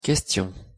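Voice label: pronunciation "question", French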